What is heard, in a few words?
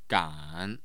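"gan", Mandarin Chinese, tone 3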